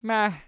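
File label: "maih" nominative, Archi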